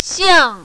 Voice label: "xiang4", mandarin, female